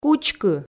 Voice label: "кучкы", Komi